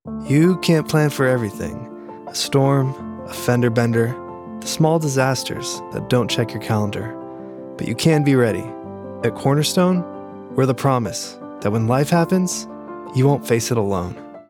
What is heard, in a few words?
commercial insurance; steady reassuring